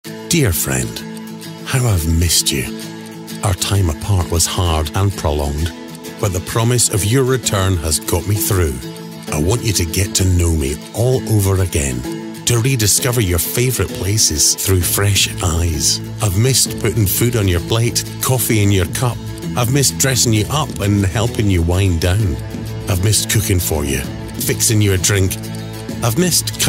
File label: english scottish narration